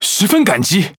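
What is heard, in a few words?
Chinese (Taiwan) Speech Quick Commands Thank You; World Of Warships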